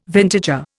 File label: "vintager", Indian